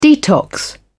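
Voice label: "detox", British English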